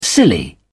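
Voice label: "silly", British English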